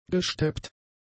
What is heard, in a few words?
"gesteppt", German; adjectives